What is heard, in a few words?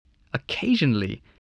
"occasionally", voice, spelling